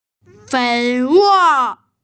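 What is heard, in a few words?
"fei2wo4", Mandarin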